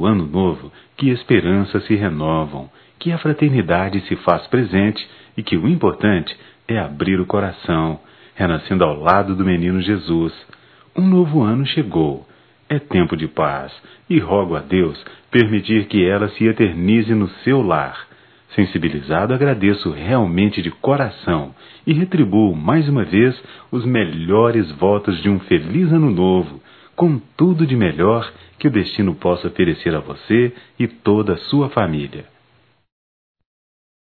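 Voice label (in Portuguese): Agradecimento de mensagem, masculino; Convites e Agradecimentos; Telemensagens 2017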